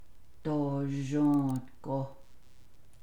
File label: navajo; acceptable satisfactory decent